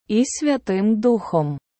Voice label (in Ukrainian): "і Святим Духом", Prononciation